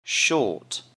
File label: "short", English; Describing Clothes